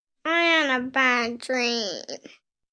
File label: I Had A Bad Dream, Scared 1 boy, 8 yrs; Exclamation; VoiceBox